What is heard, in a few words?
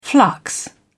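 "flux", British English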